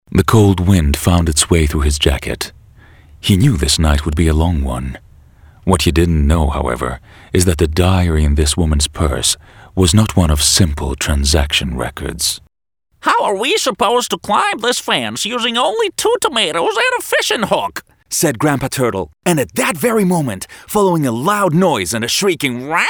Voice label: Audiobooks

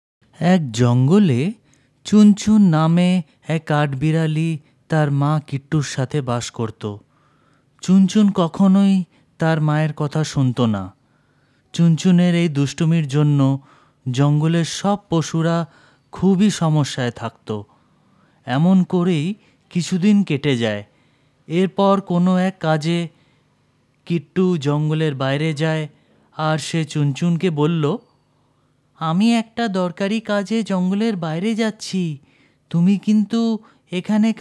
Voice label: new bengali

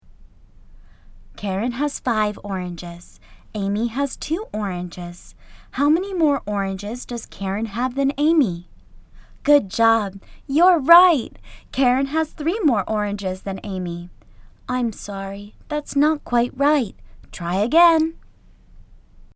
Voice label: Child E-learning

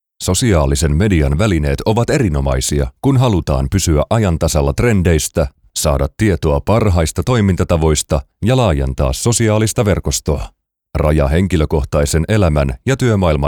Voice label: Corporate Informative

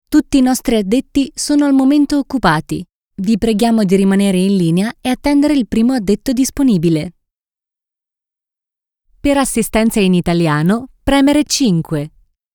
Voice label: answering machine

